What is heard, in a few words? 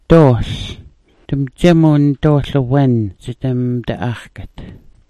"dool", smalgyax